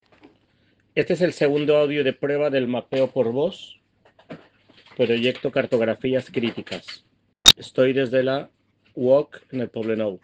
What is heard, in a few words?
Voice message